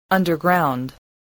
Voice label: "underground", English spelling voice